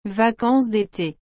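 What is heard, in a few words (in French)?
"vacances dété", French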